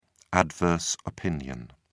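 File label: "adverse opinion", British English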